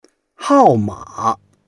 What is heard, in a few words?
"hao4 ma3", Chinese